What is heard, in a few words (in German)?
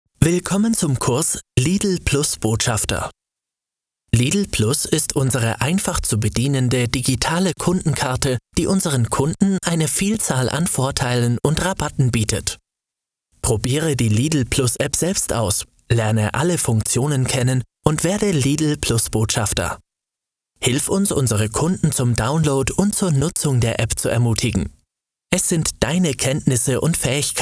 Sprecher E-Learning